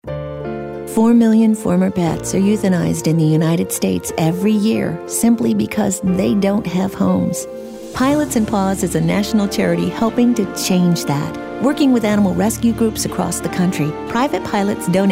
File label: concerned